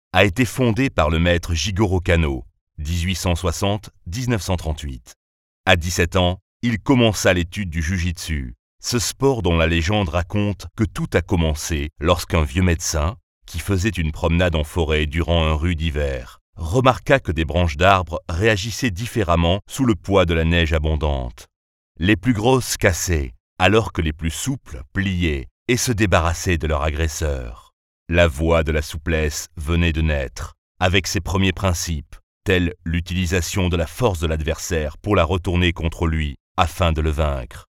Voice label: voix grave sage Judo

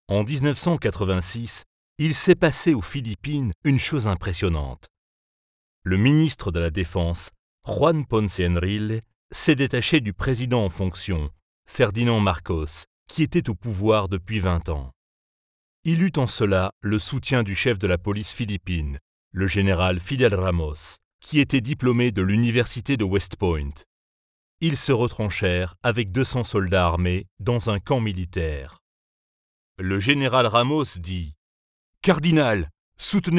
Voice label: voix grave documentaire; Philippines; Marcos

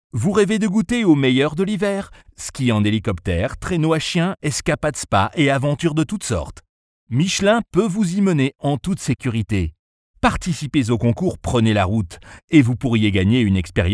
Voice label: voix medium commercial; canada; michelin canada

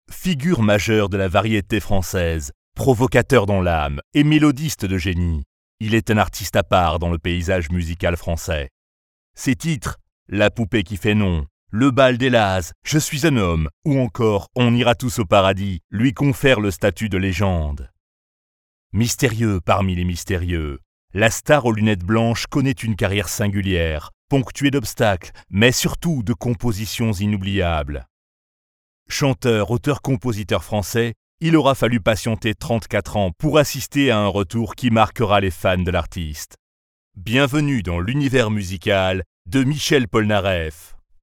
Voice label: voix grave presentation

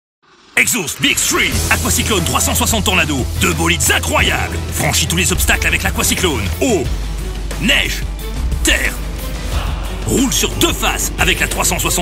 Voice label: Voix grave rapide pub; exost

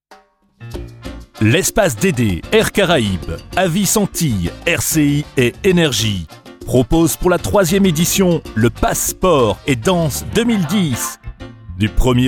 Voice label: Voix grave dynamique BA Radio locale Soiree Latino

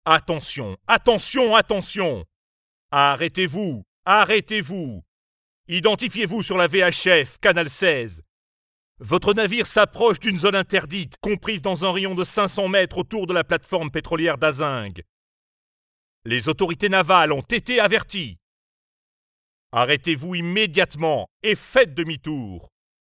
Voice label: voix grave autoritaire mencante haut parleur; FPSO; Aseng FPSO

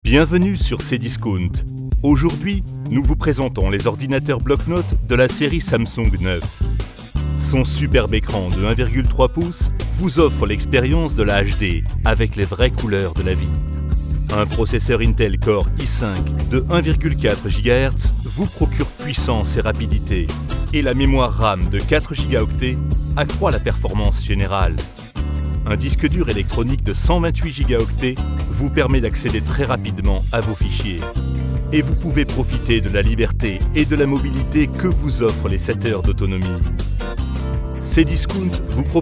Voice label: Voix grave elegant pro; CDiscount Samsung

